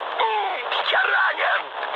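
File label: Russian damaged pilot mood low, speech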